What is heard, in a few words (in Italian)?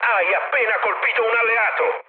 player killed ally, mood med, speech it